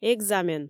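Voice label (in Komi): "экзамен", Komi, female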